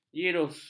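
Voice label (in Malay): "jirus", Malay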